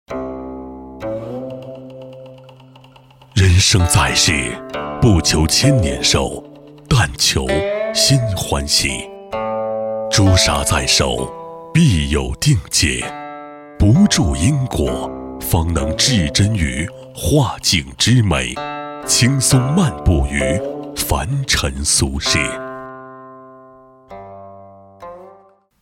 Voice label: 男 专题 古典 仙风道骨, 国语男声配音

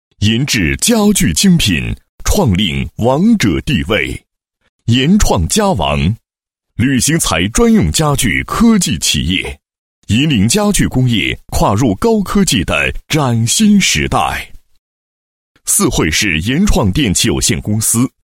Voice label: 男-汇报-建设工程结构优质奖汇报, 配音案例

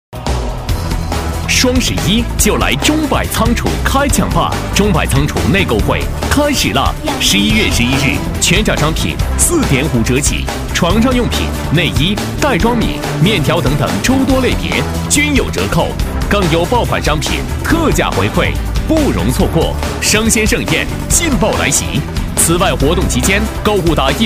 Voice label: 男 双十一广告

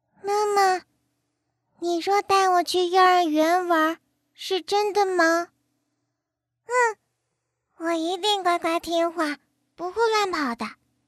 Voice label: 女 萝莉

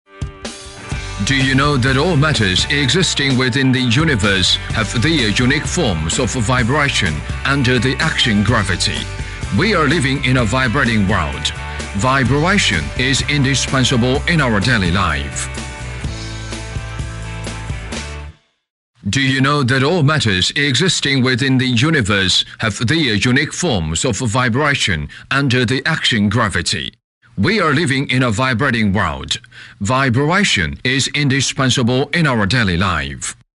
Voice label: 英男 动感; 微动季

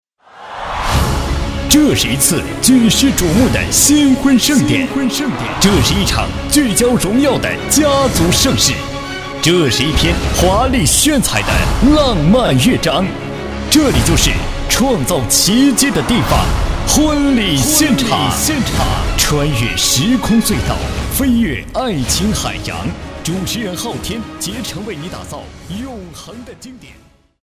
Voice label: 男 婚庆, 国语男声配音 磁性; 男98磁性专题

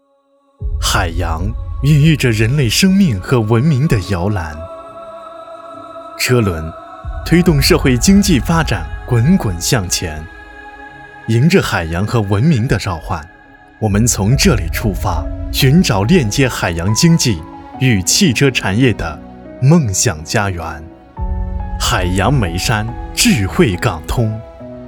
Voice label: 宣传片 企业 温暖大气

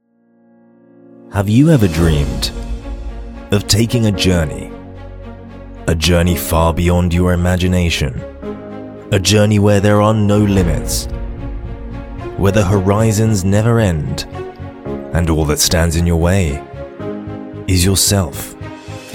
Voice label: 浑厚大气

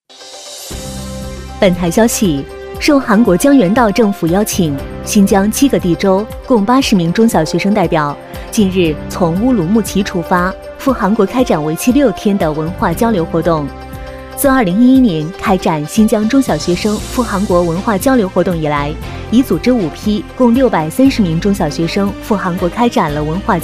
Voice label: 女1 新闻 简讯快报, 国语女声配音, 磁性

